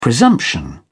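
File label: "presumption", British English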